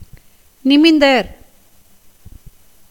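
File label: "நிமிந்தர்", Tamil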